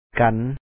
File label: "ກັນ", Lao; kan